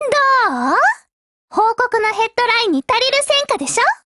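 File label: operation over, voice, Japanese; GF Alarm; C93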